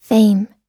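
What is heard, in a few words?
"fame", English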